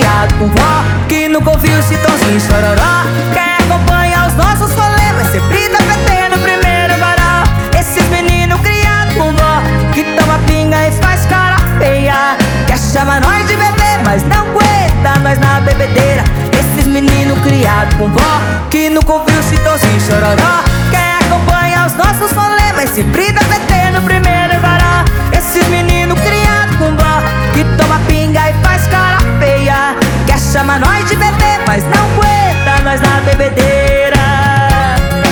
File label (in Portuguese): locutor a voz magnifica